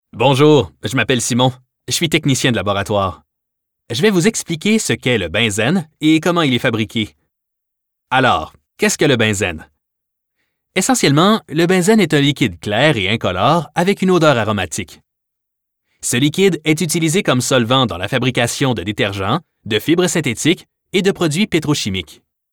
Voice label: elearning personnage